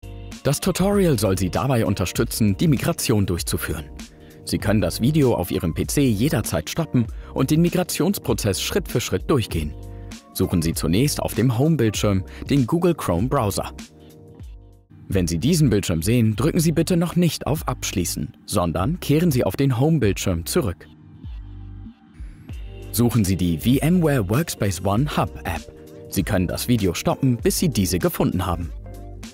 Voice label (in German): DEUTSCH Erklaerfilm App; GEMA DMT